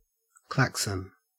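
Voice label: "klaxon", Australian English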